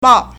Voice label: "bao4", Chinese

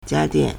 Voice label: "jia1 dian4", Chinese

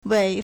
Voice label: "wei3", Chinese

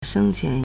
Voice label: "sheng1qian2", Chinese; 生前 shēngqián; adverb; during one's life; while living

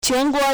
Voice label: "quan2 guo2", Chinese

